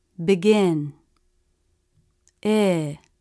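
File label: "begin", English; Short i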